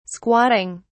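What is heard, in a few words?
"squatting", British English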